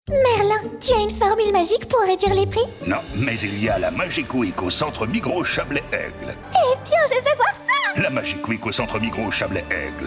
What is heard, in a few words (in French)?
Publicite radio Voix Off femme; Migros